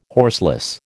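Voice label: "horseless", American English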